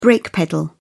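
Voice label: "brake pedal", British English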